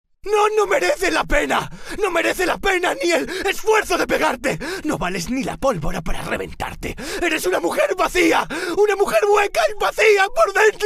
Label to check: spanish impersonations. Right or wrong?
Right